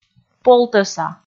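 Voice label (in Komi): "полтӧса", Komi, female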